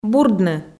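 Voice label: "бурдны", Komi, female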